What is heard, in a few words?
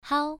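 "hao", Mandarin Chinese, first tone, pronunciation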